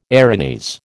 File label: "Erinys", American